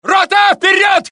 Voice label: Russian; mohaa